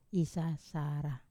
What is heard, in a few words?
"sasara" to make a mistake, Wamesa